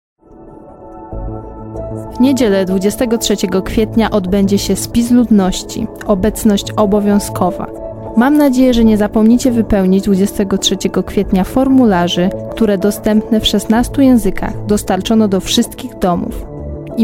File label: Polish; census